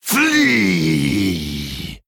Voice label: Male Mummy (Troll) Attack

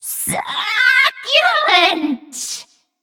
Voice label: Female Nerubian Attack

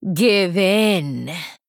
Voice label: Female Blood Elf Attack

